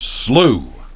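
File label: "slough", English pronunciation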